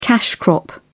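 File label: "cash crop", British English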